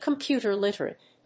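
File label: "computer-literate", English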